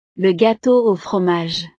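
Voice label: "le gateau au fromage", French; snacks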